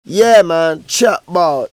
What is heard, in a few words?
Vocals; Jungle; Jungle Frenzy 1